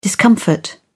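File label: "discomfort", English, British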